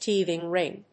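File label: "teething ring", English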